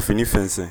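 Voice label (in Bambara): "fini fɛnsɛ", Bambara word; actions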